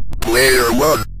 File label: player 1, Synth Voice; Kenney's Sound Pack